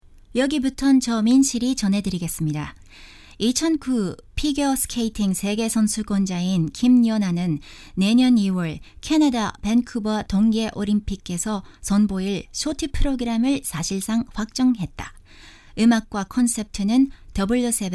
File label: Korean